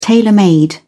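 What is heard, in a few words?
"tailor made", British English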